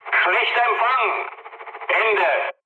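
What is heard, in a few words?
German chat, speech; War Thunder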